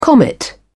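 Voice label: "comet", British English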